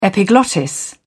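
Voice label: "epiglottis", British English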